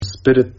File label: spirit, ga